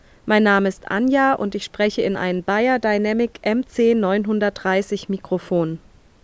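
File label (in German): beyerdynamic mc 930 sprache female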